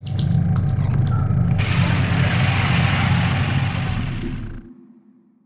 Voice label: radio chatter; Stellaris

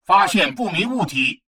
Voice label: advisor notification unidentified object, chinese; Stellaris

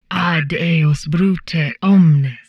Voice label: humanoid portrait female; Stellaris; humanoids

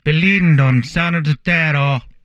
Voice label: humanoid male; Stellaris; humanoids

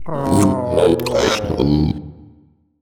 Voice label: portrait synthetic; Stellaris; machineage

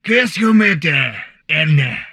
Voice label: humanoid portrait male; Stellaris; humanoids

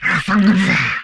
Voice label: humanoid male; Stellaris; humanoids

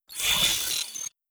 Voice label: robot reptilian greetings; Stellaris; synthetic dawn

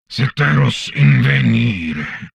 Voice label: humanoid male; Stellaris

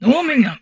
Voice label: humanoid portrait female; Stellaris; humanoids

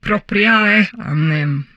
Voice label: humanoid female; Stellaris; humanoids

